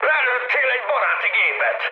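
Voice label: Hungarian player killed ally mood high, speech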